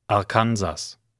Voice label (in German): deutsch; arkansas